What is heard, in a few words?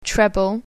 treble